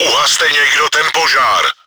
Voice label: fire started, cz; Uhaste někdo ten požár!; Czech; Потушите кто-нибудь этот пожар!; World of Tanks

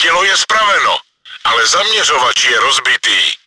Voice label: gun functional, cz; Dělo je spraveno, ale zaměřovač je rozbitý.; Czech; Орудие работает, но прицел сломан.; World of Tanks

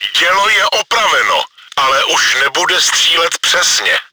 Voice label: gun functional, cz; Dělo je opraveno, ale už nebude střílet přesně.; Czech; Орудие восстановлено, но уже не будет стрелять точно.; World of Tanks

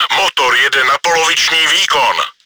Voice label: engine damaged, cz; Czech; Мотор работает наполовину; World of Tanks

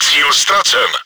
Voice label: target unlocked, cz; Cíl ztracen!; Czech; Цель потеряна; World of Tanks

